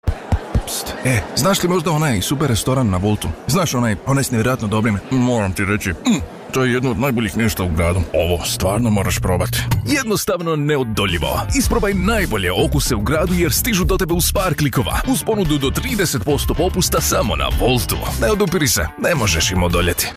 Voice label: Friendly, croatian